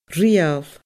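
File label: "reul", Scottish Gaelic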